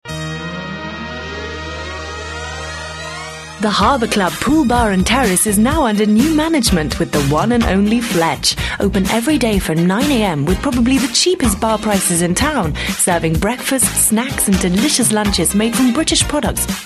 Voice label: ads; harbour club fletch